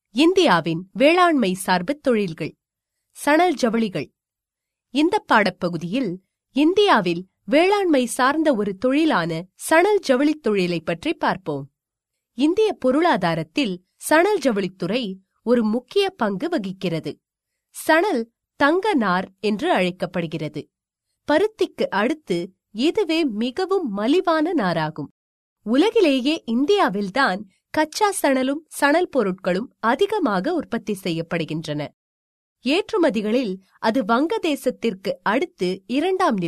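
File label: Tamil, female, e-learning; voice-over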